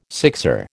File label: "sixer", American